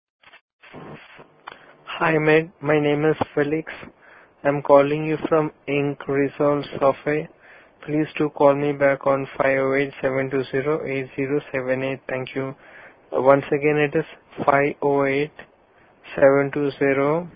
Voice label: Voice Mail